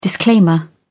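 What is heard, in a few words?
"disclaimer", English, British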